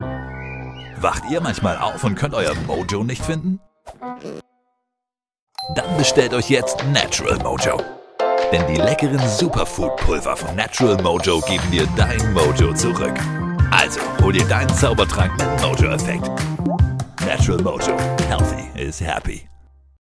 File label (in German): tv spot; natural mojo